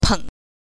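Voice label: "peng", Mandarin Chinese, neutral tone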